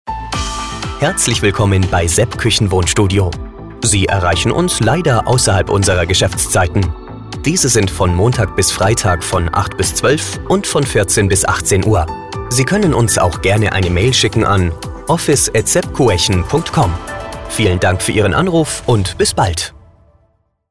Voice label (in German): Nachtansage; telefonansagen sonstige